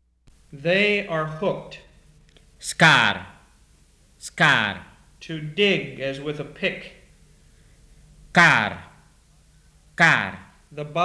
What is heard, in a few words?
BIAK